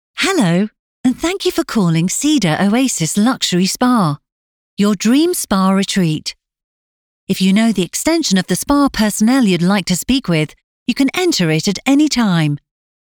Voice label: english british ivr